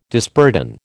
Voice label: "disburden", American

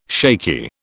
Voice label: "shaky", British

